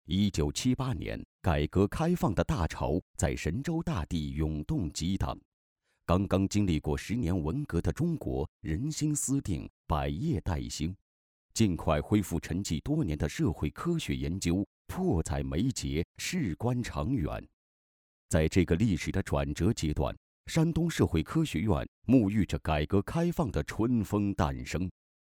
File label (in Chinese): 男 专题; 与改革开放同向同行